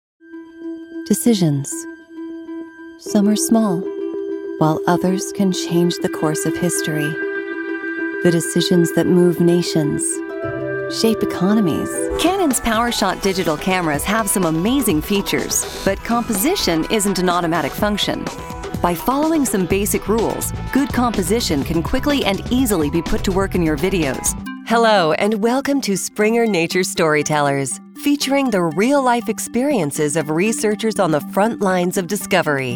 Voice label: General Narration